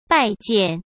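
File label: "拜见", Mandarin Chinese; hsk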